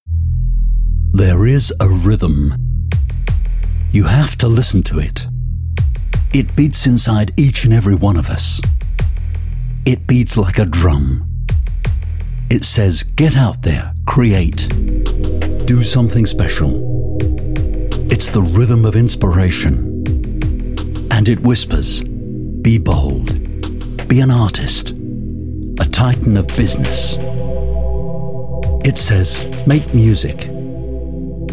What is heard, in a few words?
english british promos